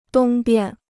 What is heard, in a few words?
"东边", Chinese